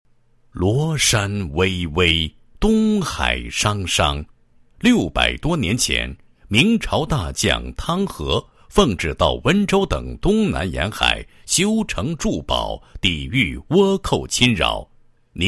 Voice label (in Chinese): 国语男声配音 解说词 大型巡游活动宣传介绍; 汤和信俗